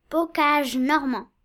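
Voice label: "basse normandie", French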